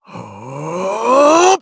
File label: Casting, jp; Kibera; kings raid